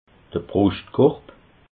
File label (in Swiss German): "bruschtkorb", alsace